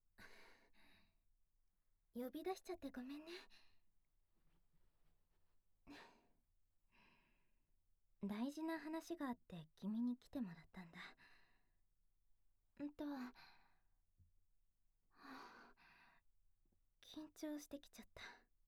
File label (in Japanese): ディープキス 耳舐めとフェラ